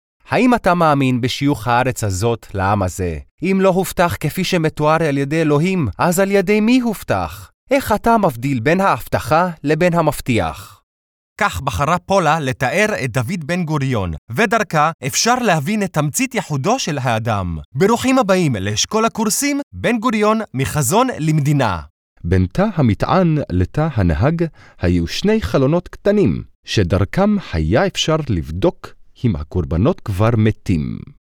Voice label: hebrew others

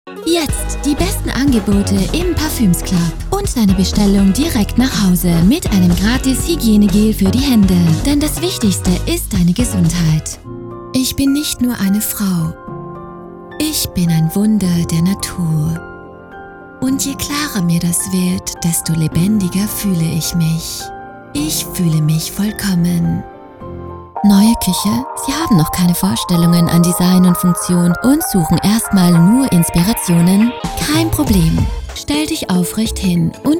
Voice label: german commercial

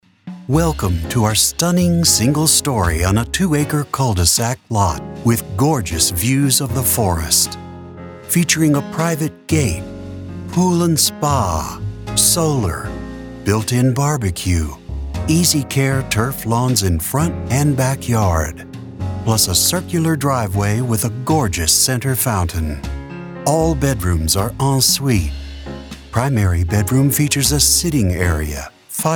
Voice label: english american real estate

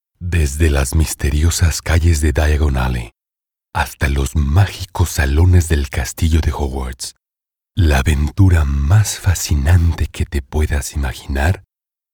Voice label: spanish latin american

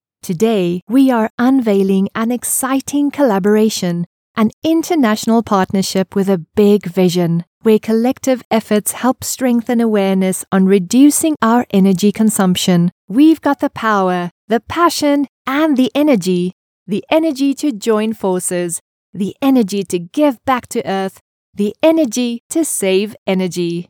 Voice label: english international announcements; Synchronsprecher aus Südafrika

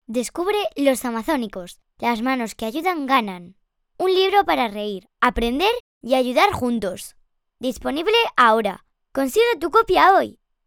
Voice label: spanish commercial, childish; Espanha

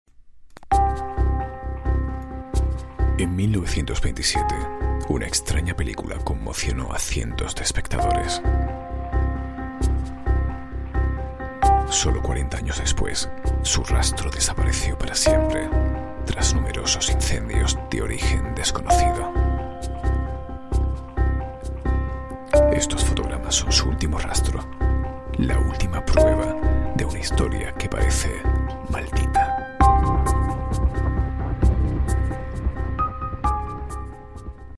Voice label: spanish tv shows

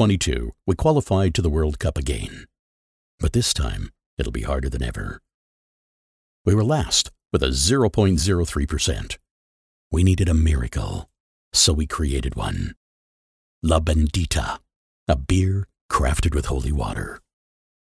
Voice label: english american tv shows

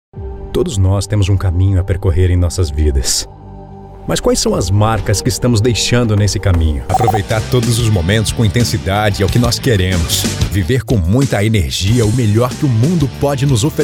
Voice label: portuguese brazilian commercial

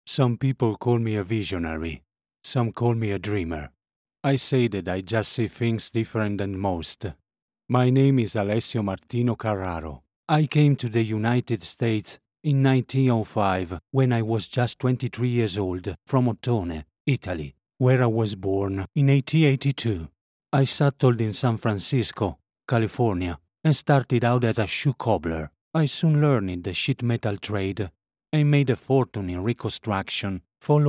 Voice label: english documentaries

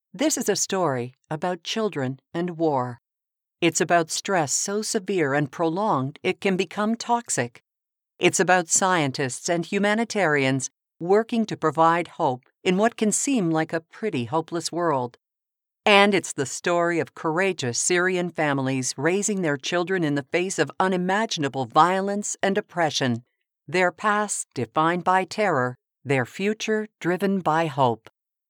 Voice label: English Canadian documentaries

